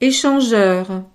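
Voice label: "echangeur", French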